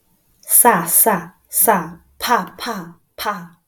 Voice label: sa sa maono pd200x